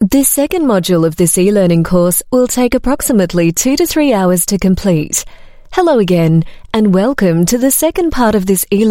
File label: AU MidAtlantic English eLearning Chemical Safety Narration, Australian Female